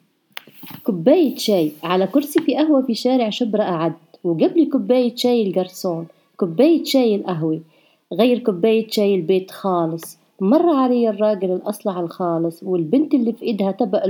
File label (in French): arabe